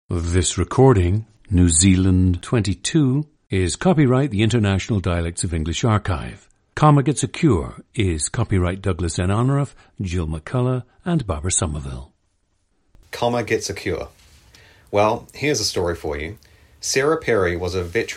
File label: New Zealand